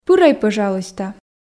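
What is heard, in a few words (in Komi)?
пырӧй пӧжалуйста, Komi, female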